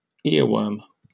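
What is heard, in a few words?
"earworm", British English; pronunciation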